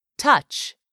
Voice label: "TOUCH", english; 3000 words

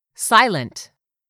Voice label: "SILENT", english; 3000 words

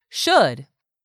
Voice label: "SHOULD", english; 3000 words

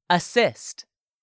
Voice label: "ASSIST", english; 3000 words

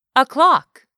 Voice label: "OCLOCK", English; 3000 words

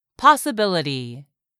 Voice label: "possibility", English; 3000 words

